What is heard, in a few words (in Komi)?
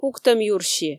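пуктӧм юрси, Komi, female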